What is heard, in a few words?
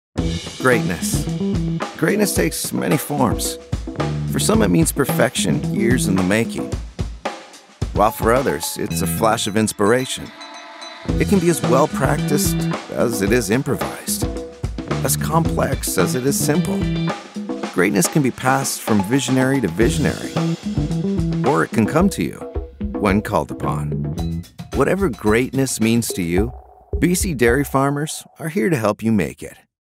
Announcer